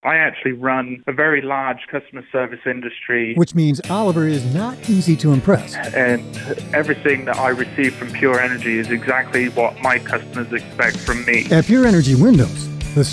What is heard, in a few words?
commercial testimonial